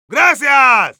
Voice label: thanks, es; Heavy